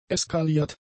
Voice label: "eskaliert", German; adjectives